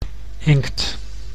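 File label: "inkt", Dutch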